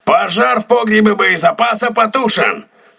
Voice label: voice message ammunition storage repaired, crew ships russian